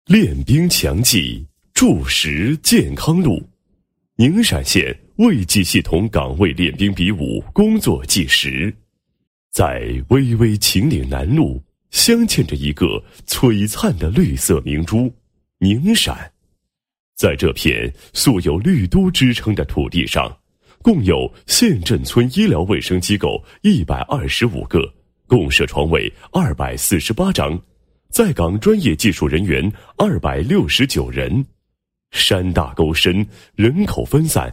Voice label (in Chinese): 男 工作纪实; 宁陕县西医医护人员岗位大练兵大比武活动